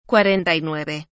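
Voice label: "cuarenta y nueve", Spanish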